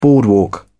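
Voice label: "boardwalk", British English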